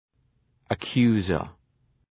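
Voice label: "accuser", English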